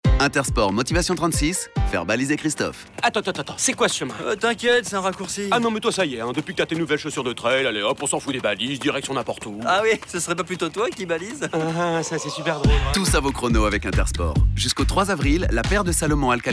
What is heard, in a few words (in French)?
comedie; INtersport